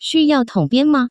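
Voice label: xuyao tongbian ma, Taiwan Mandarin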